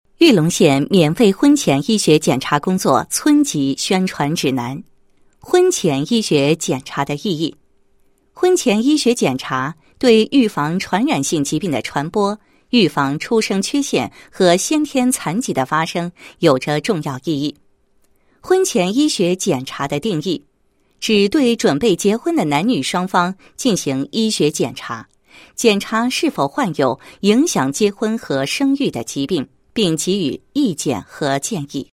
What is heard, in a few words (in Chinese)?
女 医疗 医学检查工作宣传, 配音案例